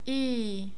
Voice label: y long, Czech

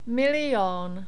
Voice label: "milion", Czech; numbers

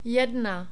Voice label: "jedna", Czech; numbers

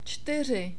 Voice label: "čtyři", Czech; numbers

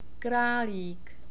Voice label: "kralik", Czech long vowels

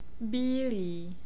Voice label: "bily", Czech

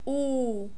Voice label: u long, Czech

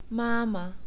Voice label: "mama", Czech

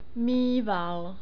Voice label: "myval", Czech long vowels

